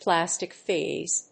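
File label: "plastic phase", English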